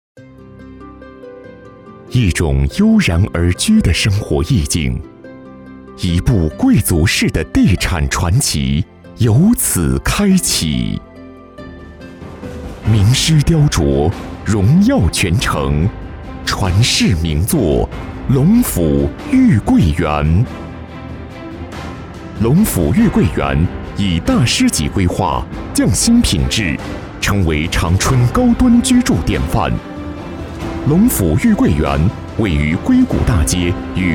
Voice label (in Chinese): 男 专题 地产宣传 大气深沉